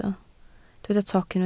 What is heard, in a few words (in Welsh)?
"toc", Welsh